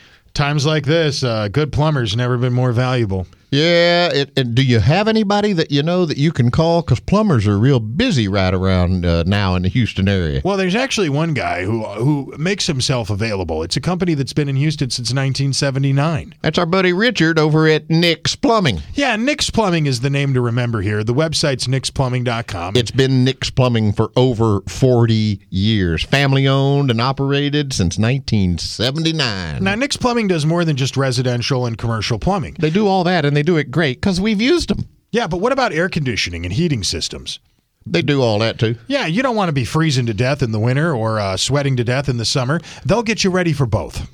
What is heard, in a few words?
RADIO AD; nicks plumbing radio ads